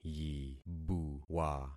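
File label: "yibuwa", concatenated syllables; Affixing experiment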